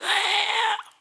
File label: Female zombie; Project Zomboid v41.73